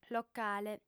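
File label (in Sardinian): "locale", Sardinian, female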